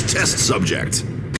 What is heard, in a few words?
test subject male